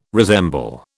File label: "resemble", British